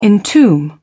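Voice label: "entomb", English, British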